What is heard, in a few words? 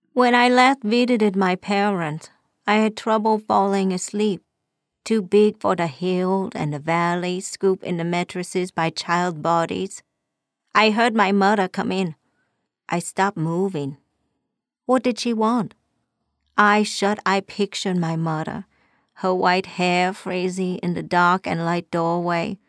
Audiobook Vietnamese